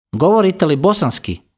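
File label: Bosnian, male, Govorite li bosanski?; Sprechen Sie Bosnisch?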